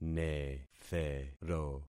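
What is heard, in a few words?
"nethero", concatenated syllables; Affixing experiment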